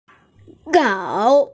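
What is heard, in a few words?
"zhao" Chinese, female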